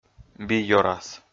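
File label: "би ёрас", Komi, male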